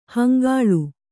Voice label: "ಹಂಗಳಿ", Kannada

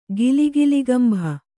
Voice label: "ಗಿಲಿಗಿಲಿಗಂಬ", Kannada

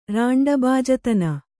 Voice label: "ರಾಂಡಬಾಜತನ", Kannada

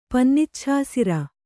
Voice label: "ಪನ್ನಿಚ್ಛಾಸಿರ", kannada

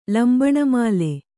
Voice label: "ಲಂಬಣಮಾಲೆ", Kannada

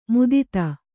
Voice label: "ಮದತ್ತು", Kannada

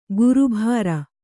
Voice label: "ಗುರುಭಾರ", Kannada

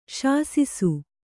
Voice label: "ಶಾಸಿಸು", Kannada; śasisu; (Verb); to reign.; to command; to instruct.; to punish; to chastise.

